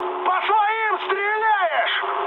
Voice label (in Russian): player friendly fire, mood high, speech ru; War Thunder